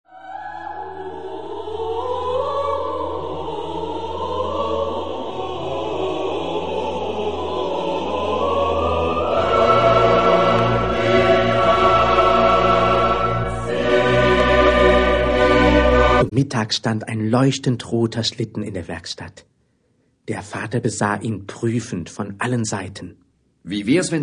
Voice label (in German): Hoerspiel